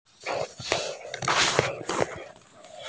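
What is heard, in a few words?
"bo1luo2", Mandarin Chinese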